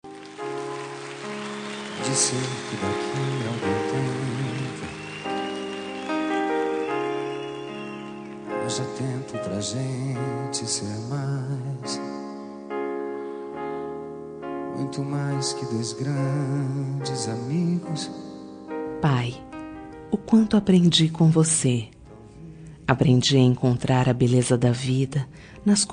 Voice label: homenagem pai fem

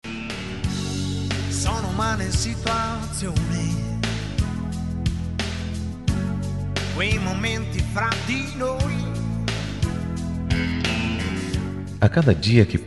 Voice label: cunhado masc

